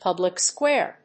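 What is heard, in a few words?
"public square", English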